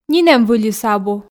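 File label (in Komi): нинӧм выльыс абу, Komi, female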